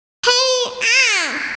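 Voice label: "darkness", Mandarin Chinese, female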